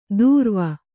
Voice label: "ತುರವ", Kannada

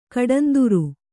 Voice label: "ಕಡಂದುರು", Kannada

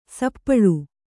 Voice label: "ಸಪ್ಪಳು", Kannada

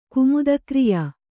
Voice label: "ಕುಮುದಕ್ರಿಯಾ", kannada

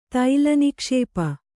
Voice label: "ತೈಲನಿಕ್ಷೇಪ", Kannada; taila nikṣēpa; noun; oil-reserve.

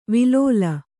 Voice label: "ವಿಲೋಲ", Kannada

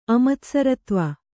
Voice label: "ಅಮತ್ಸರತ್ವ", kannada

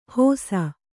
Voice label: "ಹೋಸ", Kannada

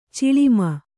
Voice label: "ಚಲುಮೆ", kannada

